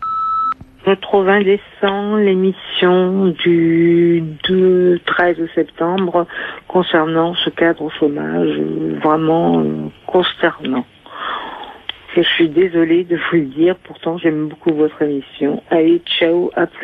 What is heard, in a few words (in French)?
repondeur choque; Cadre jetable